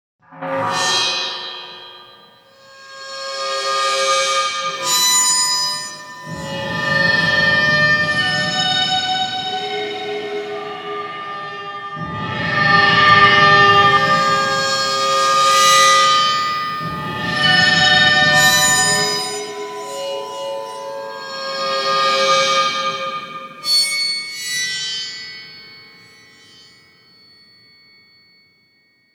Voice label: T from Alphabet tape alone